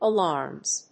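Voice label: "alarms", English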